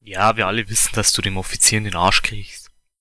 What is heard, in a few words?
dialog